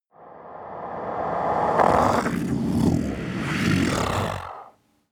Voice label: demon dark voice reverse i rule here

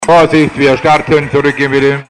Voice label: octoberfest animateur

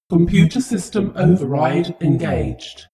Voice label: space shuttle female status report

